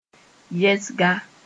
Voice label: "yɛsga (kʋʋre)", Mooré; condolence

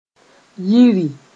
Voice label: "yiri", moore

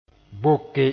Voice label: "boke", moore; vaccinate

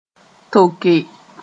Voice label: "toke", Mooré

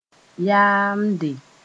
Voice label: "yaamde", Moore; to yawn

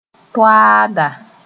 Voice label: "toaada", moore

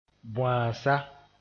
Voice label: "bõaasa", moore

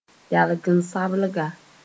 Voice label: "yalgen-sablga", Moore

